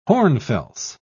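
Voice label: "hornfels", British English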